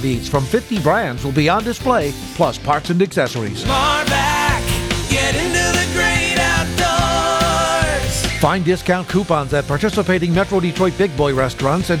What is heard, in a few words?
Radio Version, RV Show; 51st Detroit RV Show